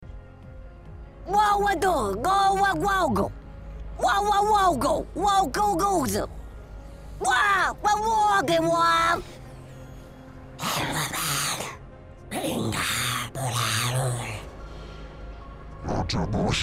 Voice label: Custom Alien Voices

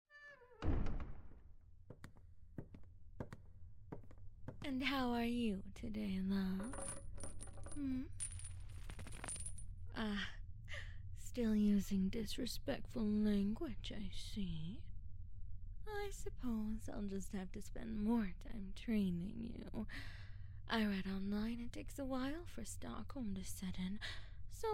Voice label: positive message, asmr

